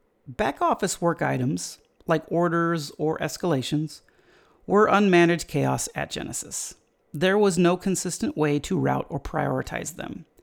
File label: voicetrack; workitemrouting